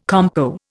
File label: "compo", Indian